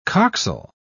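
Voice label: "coxal", British English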